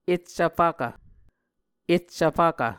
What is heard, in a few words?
"eccv fvkv", muscogee; A Dictionary of Creek/Muskogee; SIL International